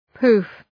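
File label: "pouf", English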